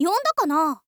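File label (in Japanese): "yondakana", girl, Japanese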